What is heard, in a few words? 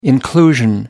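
"inclusion", British English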